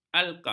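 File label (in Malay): "alkah", Malay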